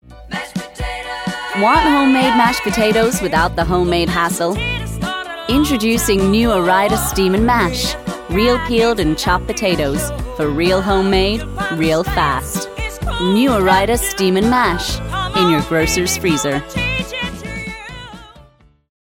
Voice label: advert mashed potatoes

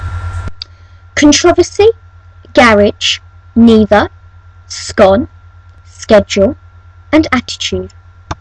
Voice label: male

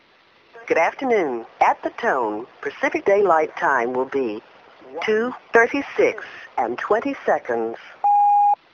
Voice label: talking clocks usa california

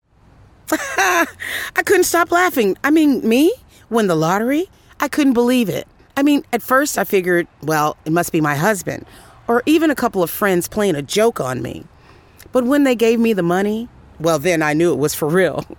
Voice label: advert lottery

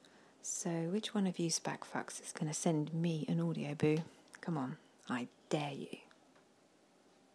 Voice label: accent

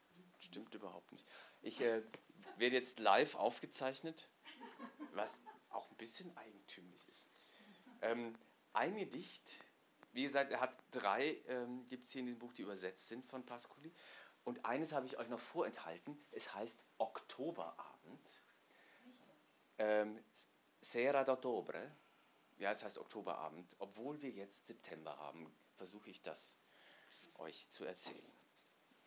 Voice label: poetry in German; giovanni pascoli

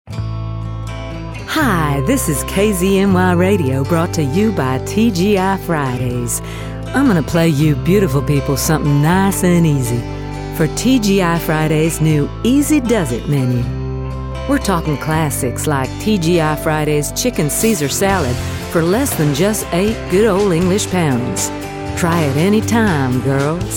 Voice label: advert; tgi fridays

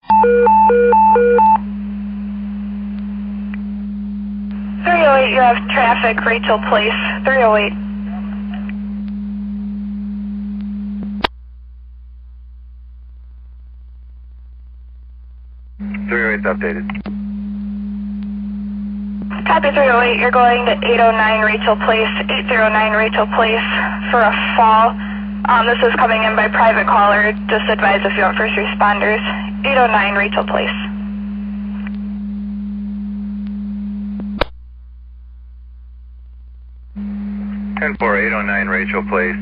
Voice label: tsa alert page

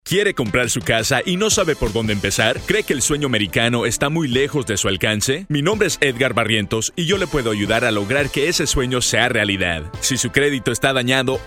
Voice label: radio spot

